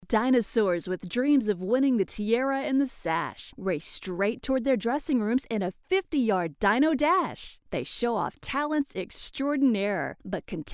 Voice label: Narration